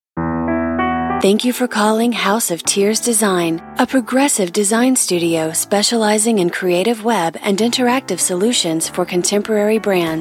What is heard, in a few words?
On Hold, US; Voice-over demos; on hold voices